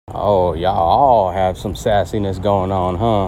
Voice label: sassy huh